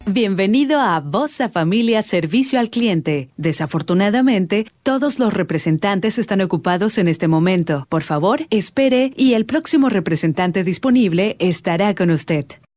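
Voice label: Spanish IVR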